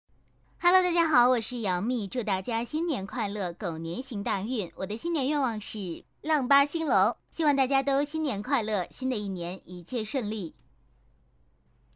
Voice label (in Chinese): 女, 模仿